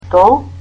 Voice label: "chair", Macedonian